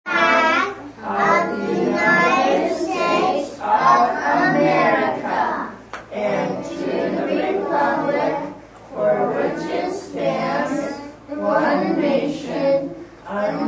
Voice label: Preschool says Pledge of Allegiance, Preschool class says Pledge of Allegiance.; Backgrounds